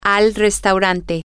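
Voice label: Spanish, to the restaurant, female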